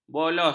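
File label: "bolos", Malay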